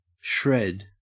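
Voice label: "shred", Australian English; pronunciations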